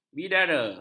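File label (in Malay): "bidara", Malay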